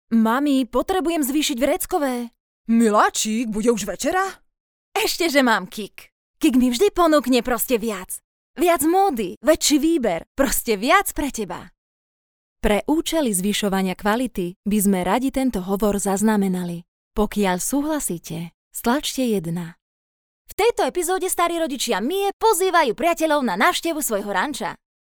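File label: Slovak, female, narration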